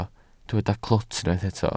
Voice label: "clwt", Welsh

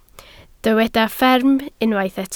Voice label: "fferm", Welsh

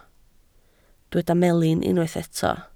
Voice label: "melin", Welsh

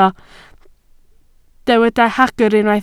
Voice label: "hagr", Welsh

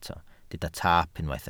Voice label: "taap", Welsh

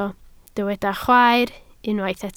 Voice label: "chwaer", Welsh

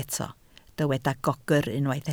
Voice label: "gogr", Welsh

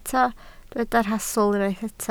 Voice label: "rhastl", Welsh

